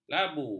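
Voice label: "labu", Malay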